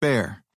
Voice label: NORMAL BEAR, American; American IPA phonic